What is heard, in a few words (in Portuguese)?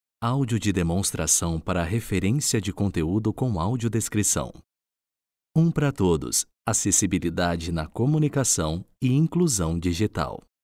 exemplo de audiodescrição; arte inclusao; caixa cultural; 20 anos extraordinarios